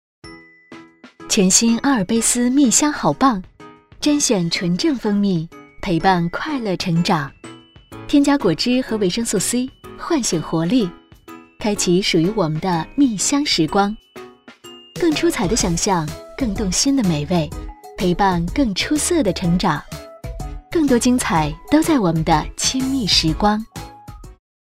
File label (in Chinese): 广告自然温柔; 阿尔卑斯蜜香好棒